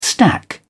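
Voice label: "stack", British English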